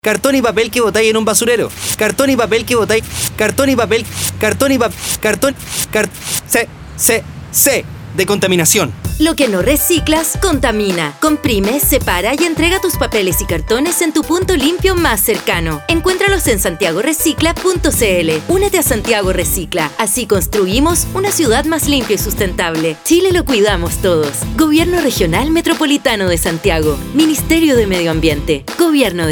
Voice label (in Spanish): Spot Radial; Stgo REcicla Carton y Papel